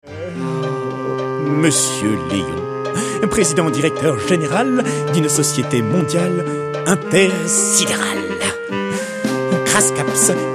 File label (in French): voix jouée; monsieur leon